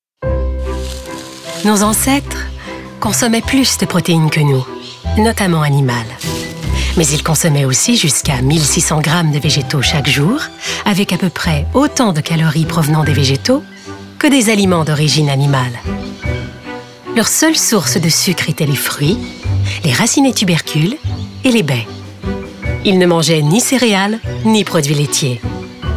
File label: narration